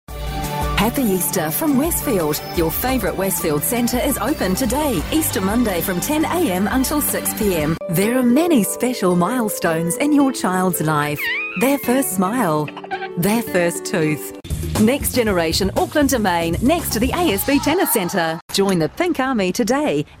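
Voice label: English New Zealand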